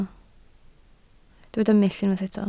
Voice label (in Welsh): "mull" Welsh